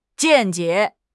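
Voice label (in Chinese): "见解", Chinese; HSK6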